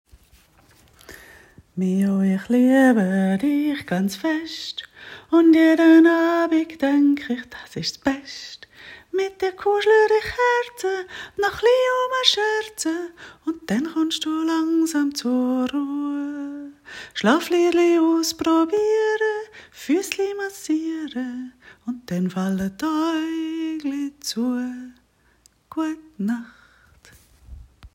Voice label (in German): kind ich liebe dich ganz fest schweizerdeutsch